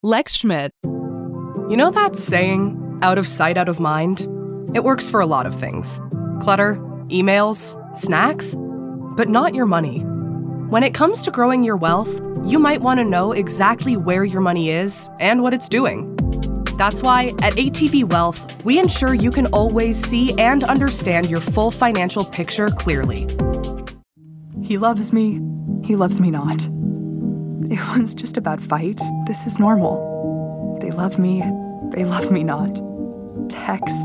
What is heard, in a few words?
Commercial